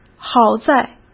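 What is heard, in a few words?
"好在", Mandarin Chinese; hsk; chinese-flashcards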